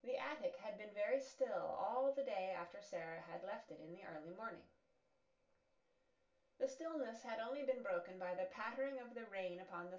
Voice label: female synthconv sim